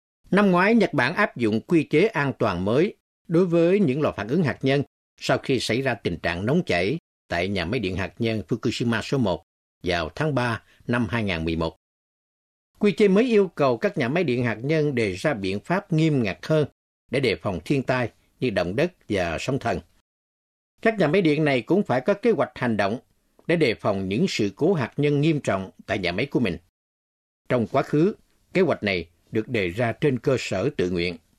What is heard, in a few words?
news